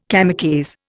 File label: "kamikaze", Indian